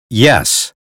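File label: "yes", American English; 英语音标发音（剑桥版）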